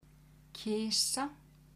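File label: "kisa", Icelandic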